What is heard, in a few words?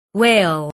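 "whale", English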